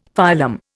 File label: "phylum", Indian; Dictionary